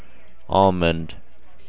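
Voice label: "almond", USA, male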